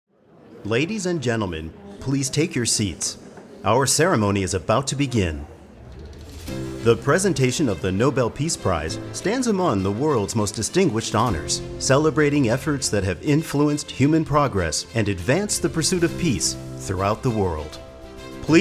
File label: VOG FR; NOBEL PRIZE